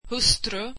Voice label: wife, swedish

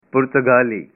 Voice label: "Portuguese", punjabi

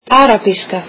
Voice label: "Arabic", Icelandic

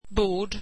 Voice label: table, swedish

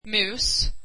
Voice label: swedish mouse

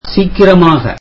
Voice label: tamil quickly

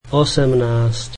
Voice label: slovak eighteen

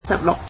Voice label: "sixteen", Cantonese

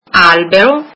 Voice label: tree, italian

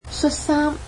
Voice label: "shirt", Cantonese

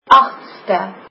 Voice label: eighth, afrikaans

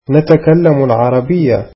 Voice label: arabic we speak Arabic

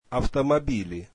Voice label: russian cars

